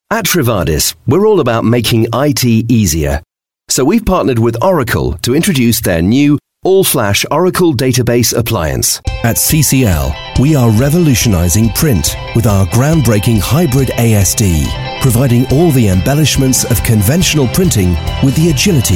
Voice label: UK English narration